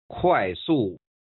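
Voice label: "快速", Chinese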